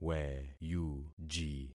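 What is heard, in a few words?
"weyuji", concatenated syllables; Affixing experiment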